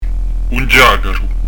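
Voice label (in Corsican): "un ghjacaru", Corsican; un dj'agaru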